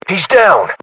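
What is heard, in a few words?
inform killfirm generic, battlechatter, British; localized english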